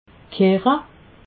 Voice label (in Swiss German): "keche", alsace